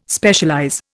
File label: "Specialize", Indian